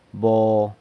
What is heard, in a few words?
"bo", San Lucas Quiavini; charcoal